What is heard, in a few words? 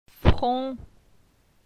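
"Fron", French; phonetique; la syllabe on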